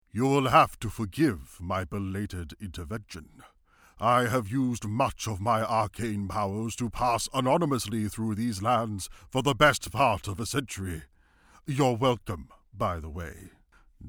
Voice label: Character Demo, Australian voice over